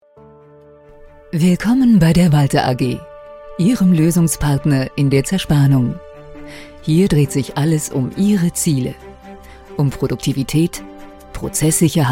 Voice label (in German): Imagefilm; Walter AG